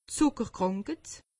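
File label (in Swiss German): "zuckerkranket", Alsatian; medecine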